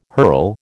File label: "herl", American